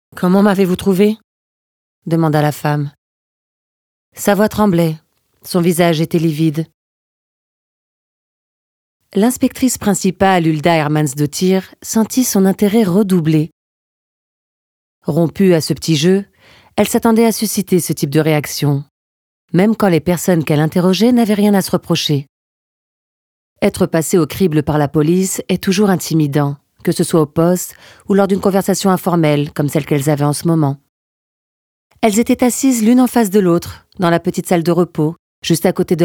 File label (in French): livre audio